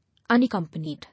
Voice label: "Unaccompanied", English

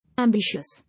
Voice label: "Ambitious", English

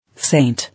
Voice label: "Saint", English

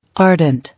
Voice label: "Ardent", English

